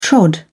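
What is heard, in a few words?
"trod", British English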